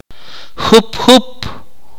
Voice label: "fly", akateko